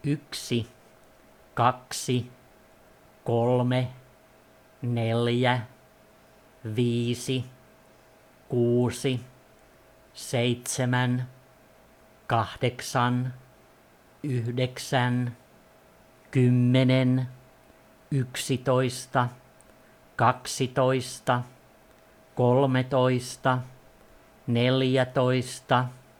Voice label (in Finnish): Fi Numbers 1 to 24